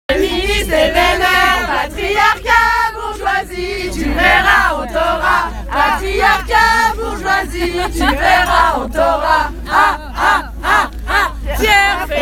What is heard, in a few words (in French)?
message vocal